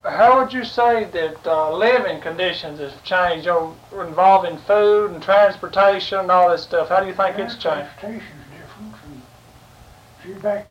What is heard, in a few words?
Oral history with 81 year old male Jackson Kentucky, american english dialect